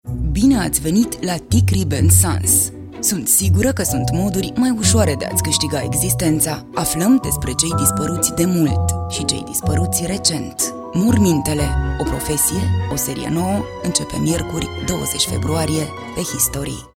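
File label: Romanian commercial; rumensk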